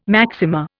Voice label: "maxima", Indian

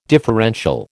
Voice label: "differential", American

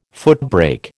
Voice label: "footbrake", American

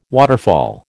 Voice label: "waterfall", American

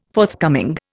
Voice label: "forthcoming", Indian

